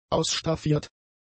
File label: "ausstaffiert", German; adjectives